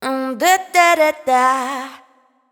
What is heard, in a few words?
adlib Jazz wet, Raw Vocals; Adlibs